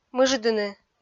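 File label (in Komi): "мыждыны", Komi, female; kpv audio dict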